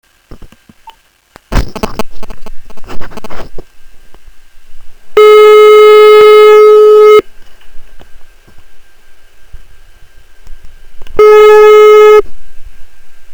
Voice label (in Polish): telefon